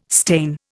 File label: "stain", Indian